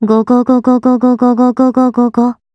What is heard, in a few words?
Go Go Go Funny Ringtone